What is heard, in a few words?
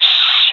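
request quiet, female voice; player vo jungle fem; Counter Strike Model Pack; CS:GO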